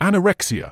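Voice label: "anorexia", British English; an-uh-RECK-see-uh; ˌæn.əˈrek.siː.ə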